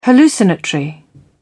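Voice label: "hallucinatory", British English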